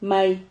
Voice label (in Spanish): "may", Silabas